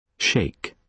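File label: "shake" noun, British English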